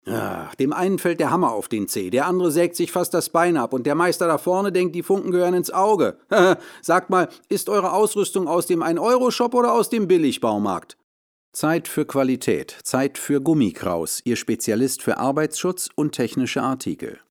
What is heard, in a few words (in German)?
Werbung; GummiKraus